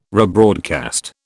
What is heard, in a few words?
"rebroadcast", British